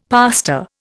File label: "pastor", Indian; Dictionary